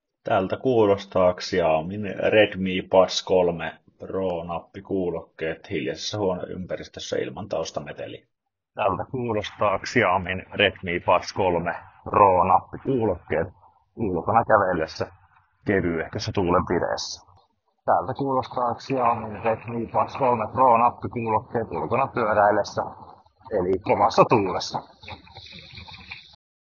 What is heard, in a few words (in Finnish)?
Xiaomi Redmi Buds 3 Pro mikkitesti